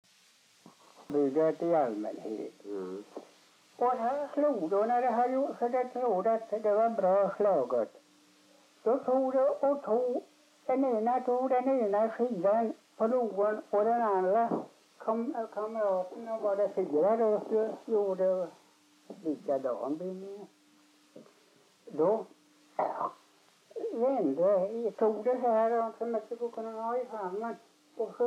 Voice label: dialekter; Folkminnen; Svenska sagor

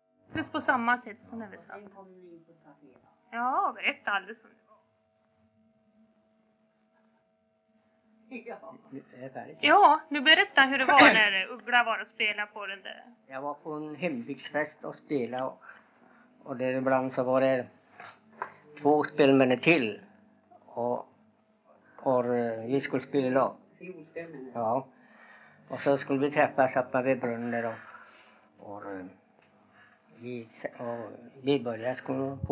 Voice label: Hedesunda, dialekter; Folkminnen

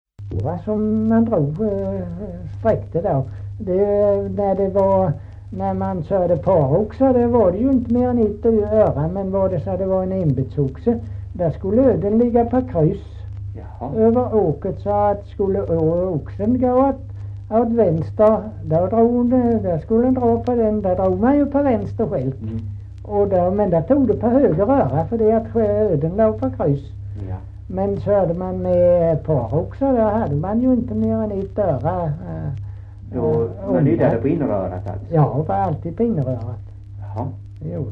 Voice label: Kristianopel, dialekter; Folkminnen

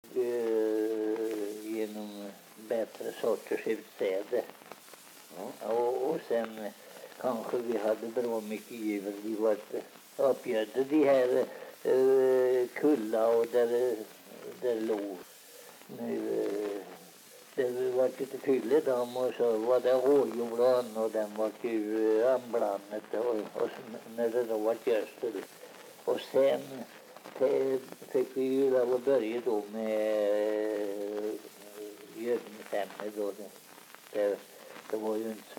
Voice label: dialekter; Folkminnen; Svenska sagor

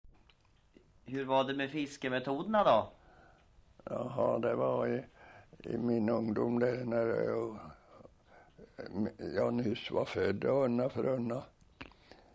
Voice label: Svenska sagor, dialekter; Folkminnen

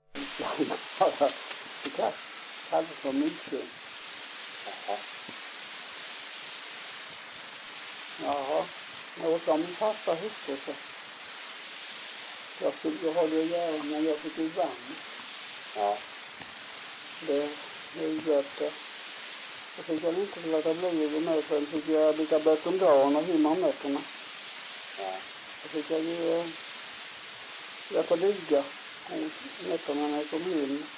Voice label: dialekter; Folkminnen; Svenska sagor

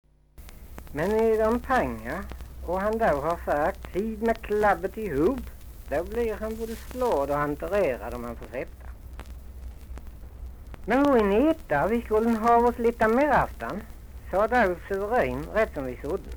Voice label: Svenska sagor, dialekter; Folkminnen

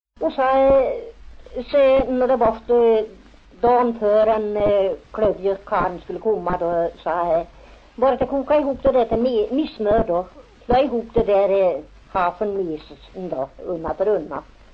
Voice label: Järvsö, dialekter; Folkminnen

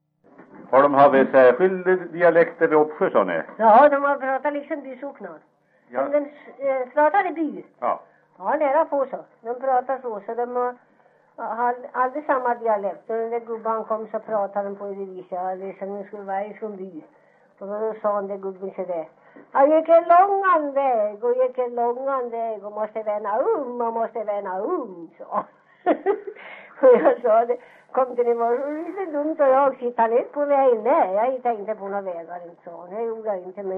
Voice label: dialekter; Folkminnen; Svenska sagor

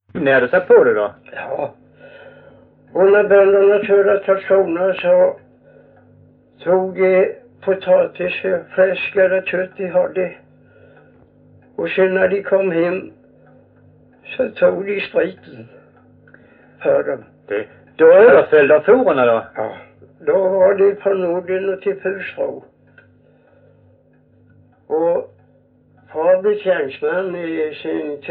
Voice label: Visseltofta SK, dialekter

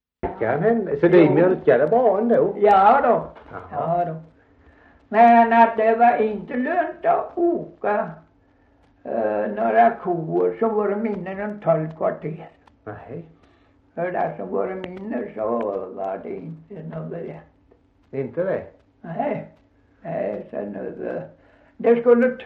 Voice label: Gunnarp, dialekter; Folkminnen

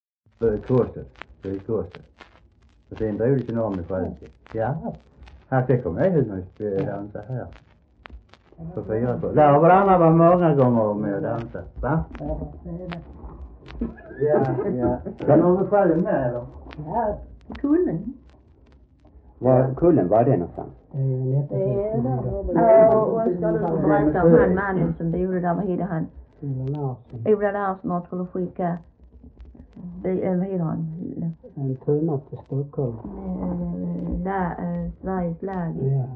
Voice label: Äspö SK, dialekter; Folkminnen; Svenska sagor

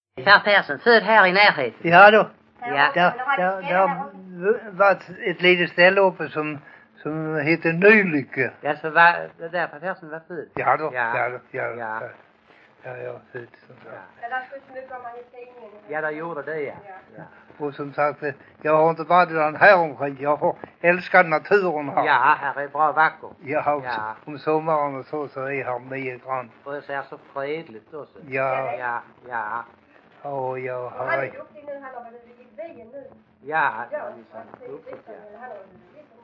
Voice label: Gödelöv SK, dialekter; Folkminnen; Svenska sagor

